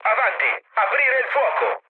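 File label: Italian attack position mood low, speech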